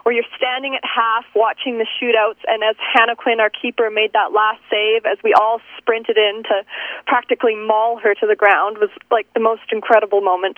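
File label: pen shoot out tense celebration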